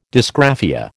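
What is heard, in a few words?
"dysgraphia", American English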